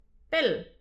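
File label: "pell", Breton; petit precis de prononciation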